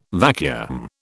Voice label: "vacuum", British